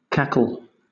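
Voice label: "cackle", British English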